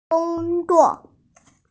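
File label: "gong1zuo4", Mandarin Chinese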